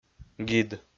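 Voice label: "гид", Komi, male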